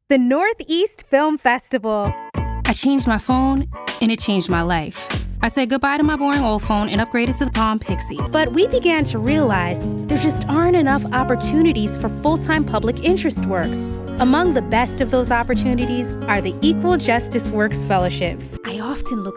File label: Multiethnic Female Commercial